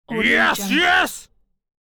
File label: cartoon pirate says yas yees